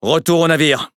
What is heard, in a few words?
Speech Pilots Fighter Land, FR; World Of Warships